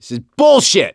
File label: reaction negative, voice; gambler